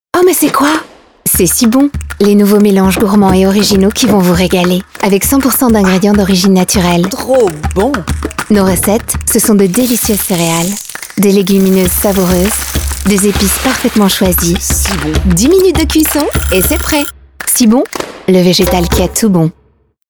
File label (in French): PUB TV; Si Bon